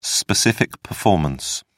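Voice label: "specific performance", British English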